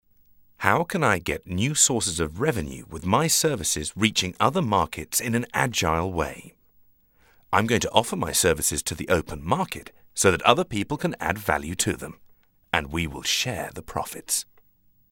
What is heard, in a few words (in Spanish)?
locutor ingles